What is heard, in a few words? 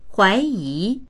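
"huai2yi2", Chinese; huáiyí; 怀疑; 疑う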